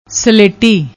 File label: "ਸਲੇਟੀ", Punjabi